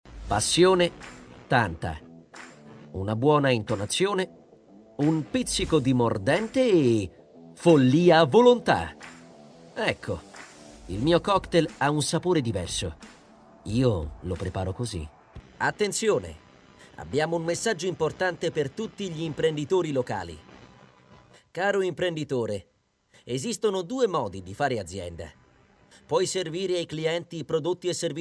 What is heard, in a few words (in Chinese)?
意大利男声